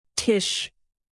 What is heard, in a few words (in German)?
tisch, native; alphabet de